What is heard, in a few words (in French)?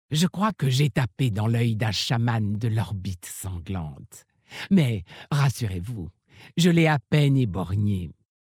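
French Femme Flirt; OrcMaghar; Orbitesanglante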